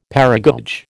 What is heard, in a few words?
"paragoge", American